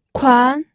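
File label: "kuan", second tone, pinyin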